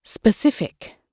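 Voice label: "specific", British English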